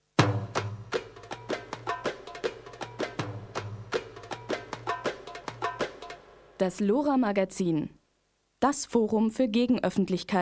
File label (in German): Eigenwerbung; Attac; LoRa